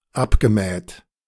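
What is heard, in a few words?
"abgemäht", German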